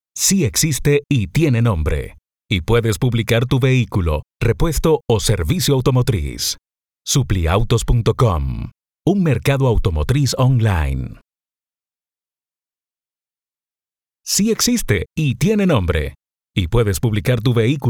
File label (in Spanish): Español - Neutro Latino Americano - Hombre; VoiceBunny